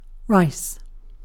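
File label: "rice", British English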